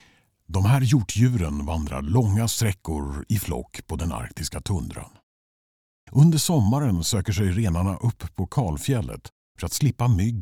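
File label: documentary, Swedish; explainer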